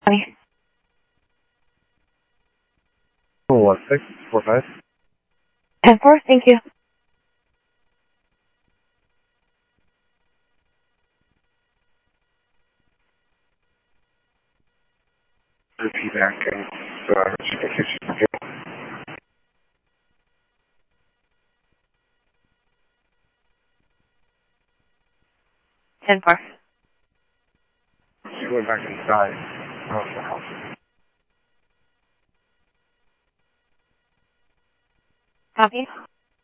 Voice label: Sheriff, scanner audio; South Scottsdale; MCSO - EAST Sheriff-EastCnty